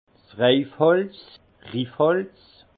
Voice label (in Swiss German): "reifholz", alsace; feuillard; vin